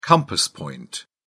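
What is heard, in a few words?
"compass point", British English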